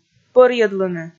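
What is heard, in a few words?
"пӧрйӧдлыны", Komi, female; kpv audio dict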